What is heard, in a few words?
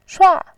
"shua4", voice